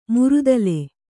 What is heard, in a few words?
"ಮರ್ದಲ", Kannada